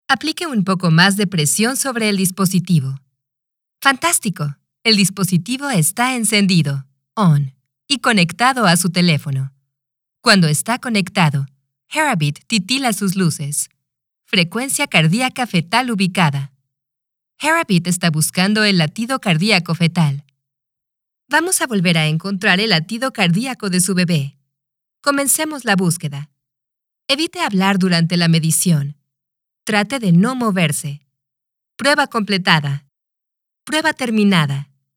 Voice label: VO for devices

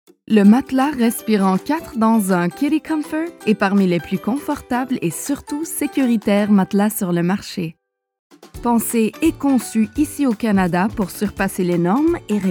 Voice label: Commercial French

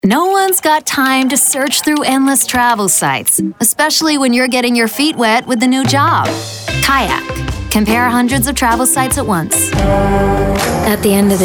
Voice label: American Commercial

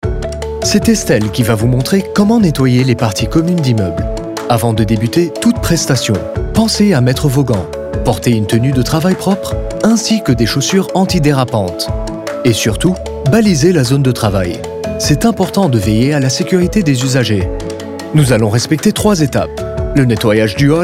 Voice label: Cleaning Training Video

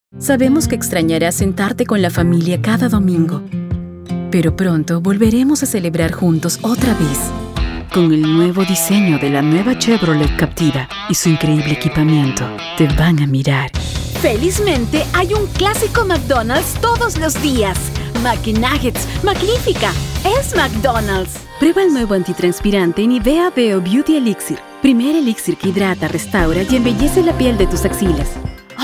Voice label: commercial spa

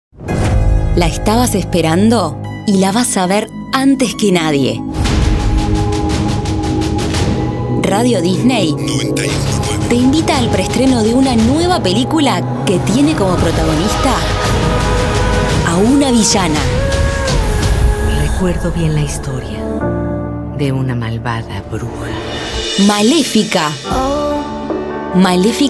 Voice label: Promo; Disney Malefica

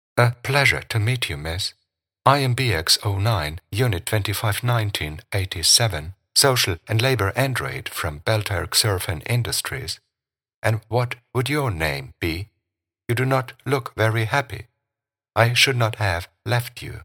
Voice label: ROBOT

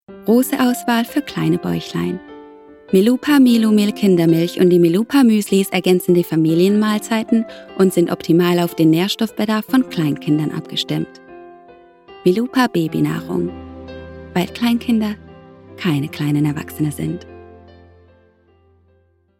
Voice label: Advert German

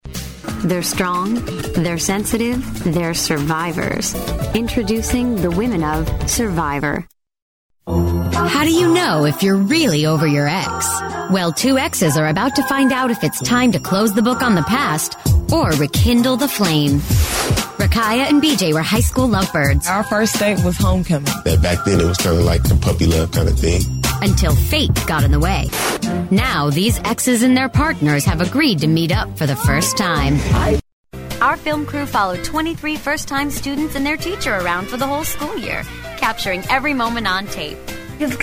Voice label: Promo Demo

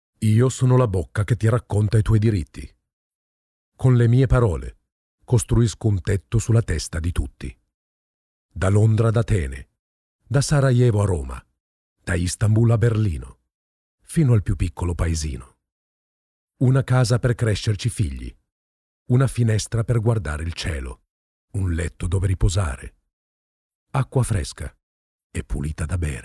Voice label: spot

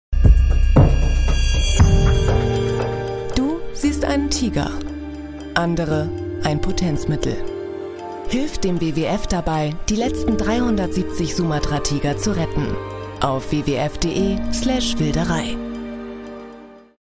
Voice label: Serious Commercial; Spotify

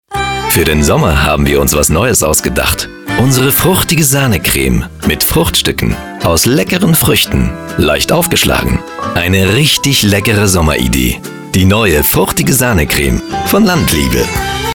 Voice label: DE commercial; landliebe

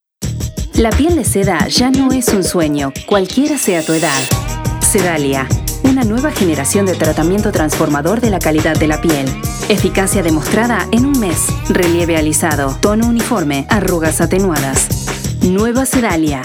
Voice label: es AR COMMERCIAL

